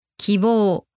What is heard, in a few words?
"kibou", Japanese; hope